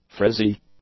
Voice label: "frizzy", English; adjectives